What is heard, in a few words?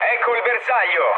near waypoint mood low, speech it; War Thunder